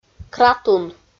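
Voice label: "кратун", Komi, female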